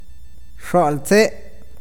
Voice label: "bosque", awakateko

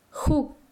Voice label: "uno", Quechua Chanka

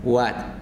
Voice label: "cuerno" Zapoteco

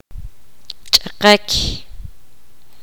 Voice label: poqomam mojado

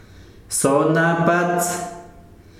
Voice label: pecho, yanesha

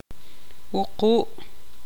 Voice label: "siete", tzutujil

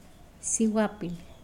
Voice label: Nawat palabras muchacha; pipil

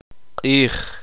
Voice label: "sol", uspanteko

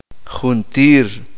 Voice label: uspanteko todos

